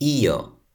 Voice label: "ijo", Toki Pona